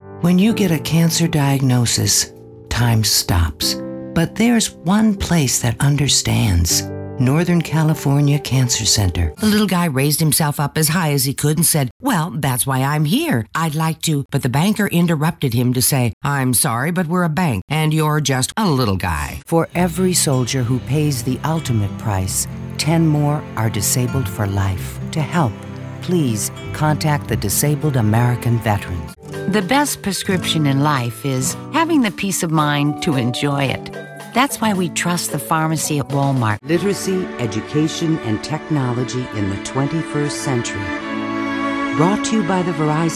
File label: Commercial